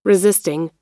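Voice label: "resisting", American English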